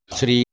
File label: "thri", Proto Germanic